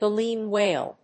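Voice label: "Baleen whale", English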